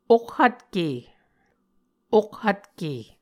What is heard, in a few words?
"okhvtke", Muscogee